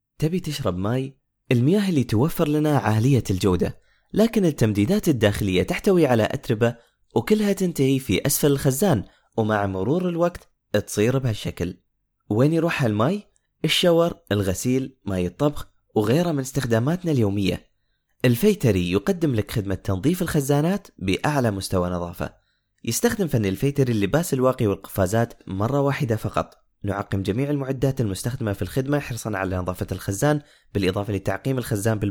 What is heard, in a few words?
arabic qatar male